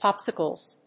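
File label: "Popsicles", English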